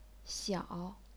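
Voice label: "xiao", chinese pinyin, third tone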